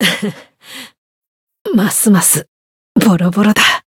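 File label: win barely, Japanese; clarte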